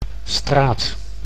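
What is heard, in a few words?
"straat", Dutch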